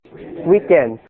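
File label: "weekend", English, male; noun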